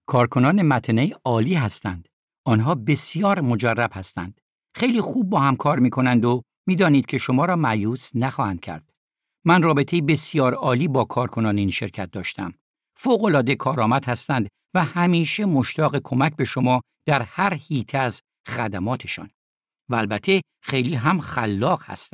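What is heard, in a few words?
Farsi, male, corporate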